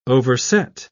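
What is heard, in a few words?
"overset", English, British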